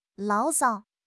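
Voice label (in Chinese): "牢骚", Chinese; HSK6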